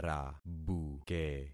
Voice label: "rabuke", concat syllables; Affixing experiment

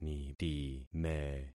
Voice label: "nitime", concat syllables; Affixing experiment

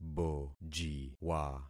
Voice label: "bojiwa", concat syllables; Affixing experiment

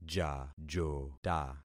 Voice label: "jajota", concat syllables; Affixing experiment

